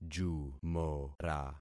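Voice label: "jumora", concatenated syllables; Affixing experiment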